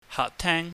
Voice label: "haakteng", Cantonese

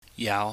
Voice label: "yau", Chinese

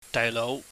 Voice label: "daaihlou", cantonese

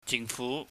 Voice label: "jingfu", Cantonese

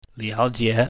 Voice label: "liao3jie3", mandarin

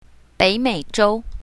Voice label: "bei3mei3zhou1", mandarin

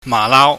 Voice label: "mahlau", Cantonese

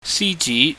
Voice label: "siji", Cantonese

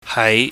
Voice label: "haai", chinese

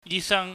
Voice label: "yi sang", Cantonese

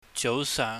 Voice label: "jouseuhng", Cantonese

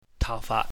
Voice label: "tau faat", Cantonese

